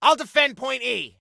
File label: DEFEND, Soldier Male